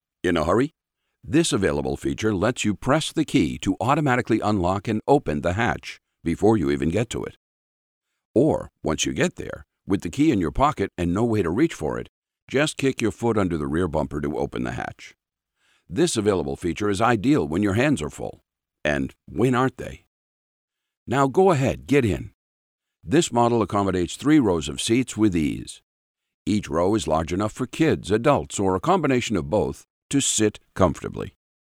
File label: Automotive App Explainer